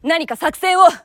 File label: japanese Idle; azurlane hoi4; azurlane tj